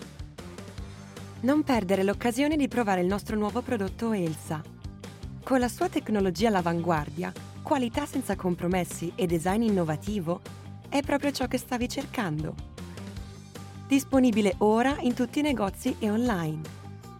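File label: Italian, Commercial; GoLocalise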